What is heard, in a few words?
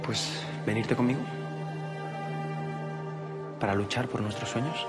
Voice Acting es M